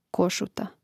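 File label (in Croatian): "kosuta", Hrvatski; Mrežnik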